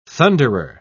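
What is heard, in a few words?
"thunderer", British English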